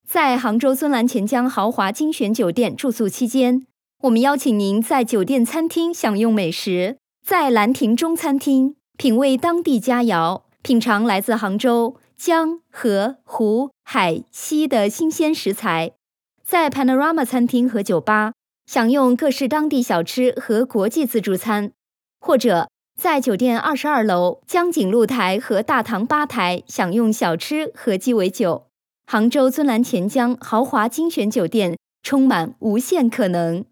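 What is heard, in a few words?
Chinese, IVR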